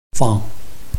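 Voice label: "fang", Chinese, neutral tone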